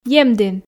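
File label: "емдін", Komi, female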